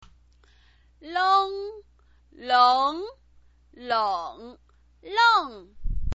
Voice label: "leng", Chinese pinyin voice